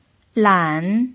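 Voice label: "lan", Mandarin Chinese pinyin, tone 3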